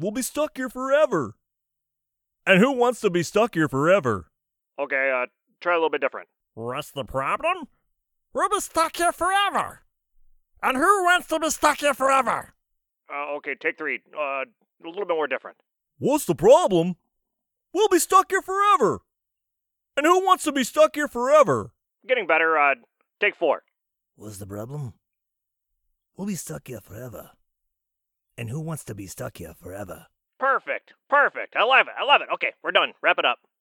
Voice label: audition cartoon